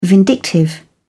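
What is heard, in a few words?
"vindictive", English, British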